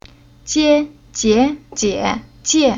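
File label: "Jie", Chinese syllable; Уроки фонетического блока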